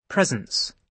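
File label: "presence", British English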